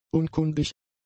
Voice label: "unkundig", German; adjectives